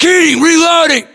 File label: inform reloading, US; localized english; battlechatter